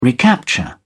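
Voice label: "recapture", British English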